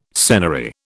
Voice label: "senary", British